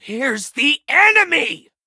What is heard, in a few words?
battle en